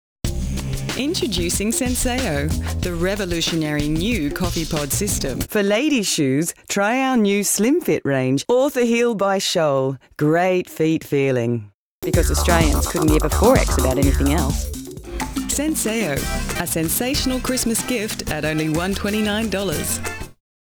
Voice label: Australian Voice Over; Voice Over Reel